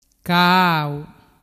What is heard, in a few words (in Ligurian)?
"caau", dialettu